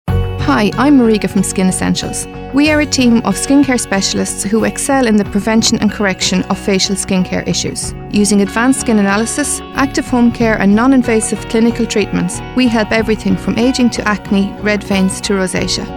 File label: Commercial